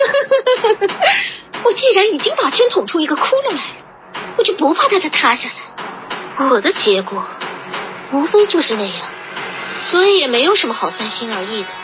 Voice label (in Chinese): 黑化; 元淳